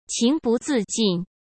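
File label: "情不自禁", Mandarin Chinese; chinese-flashcards; hsk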